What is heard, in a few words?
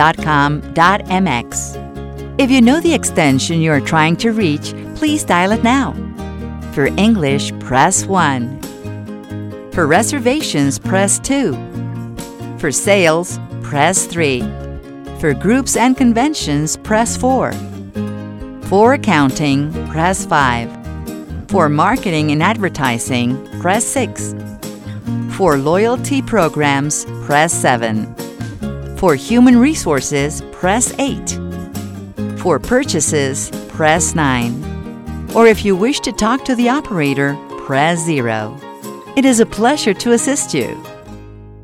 call cent ingles